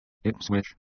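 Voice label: "Ipswich", English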